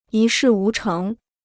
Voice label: "一事无成", Chinese

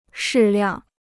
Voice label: "适量", Chinese

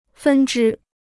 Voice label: "分之", Chinese

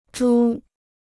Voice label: "株", Chinese

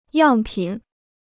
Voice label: "样品", Chinese

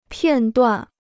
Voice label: "片断", Chinese

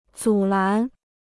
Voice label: "阻拦", Chinese

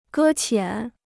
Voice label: "搁浅", Chinese

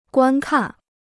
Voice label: "观看", Chinese

